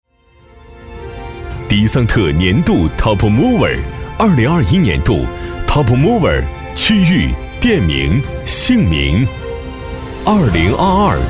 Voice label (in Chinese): 男 颁奖 获奖名单; 年轻浑厚 大气浑厚